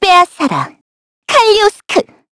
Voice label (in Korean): Vox Skill, kr; voices; heroes; Xerah; kings raid